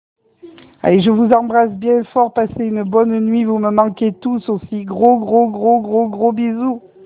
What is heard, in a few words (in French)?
Message vocal